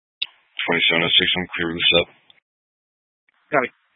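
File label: Sheriff Dispatch, scanner audio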